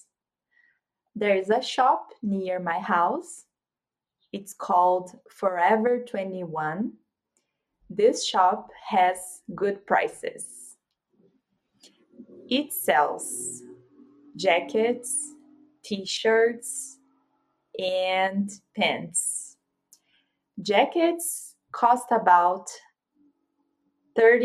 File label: conversation with accent from Brazil; “What shop sells cheap clothes?”; Cost, Like, Buy; Elllo